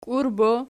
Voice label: "kiurbos pf", Archi